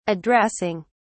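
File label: "addressing", British English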